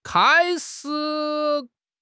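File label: "kai1 shi3", Chinese; HSK; HSK2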